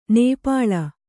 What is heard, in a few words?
"ನಿಪ್ಪಲು", kannada